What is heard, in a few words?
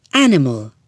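"animal", English; speaking dictionary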